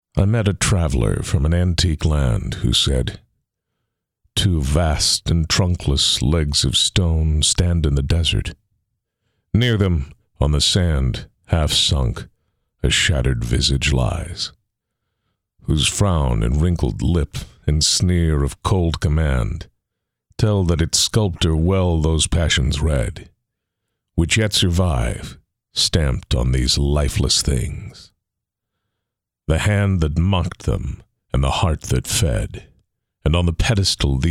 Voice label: american english slow bass tones